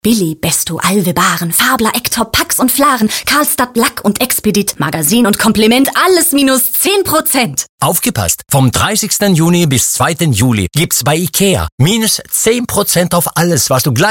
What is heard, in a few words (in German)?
Werbung; begeistert IKEA